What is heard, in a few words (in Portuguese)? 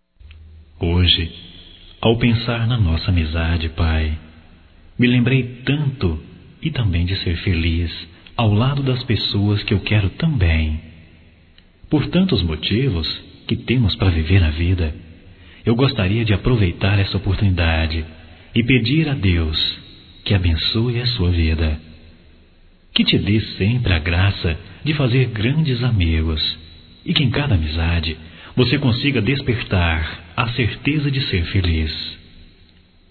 Homenagem a Pai Masculino